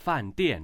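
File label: "fan dian", Chinese, tones 4 and 4, male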